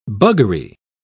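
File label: "buggery", British English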